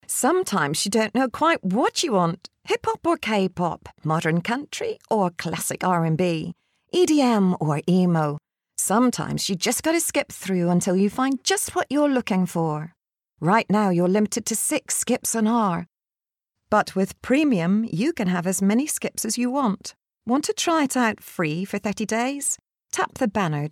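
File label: Northern Irish F Commercial, accent of ireland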